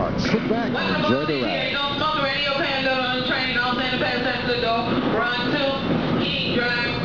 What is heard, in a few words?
announce